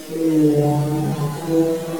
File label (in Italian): voce femmile rallentato; AbbaziaVangadizza